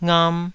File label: "ngam2", Taiwanese